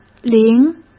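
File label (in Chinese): "铃", Mandarin Chinese; chinese flashcards; hsk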